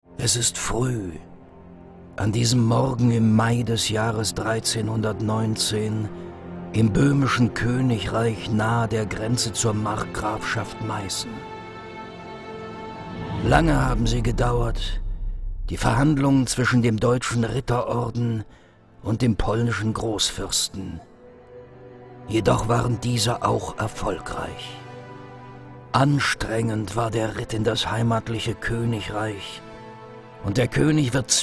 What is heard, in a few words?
german movie trailers